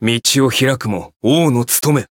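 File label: encounter, Japanese; claude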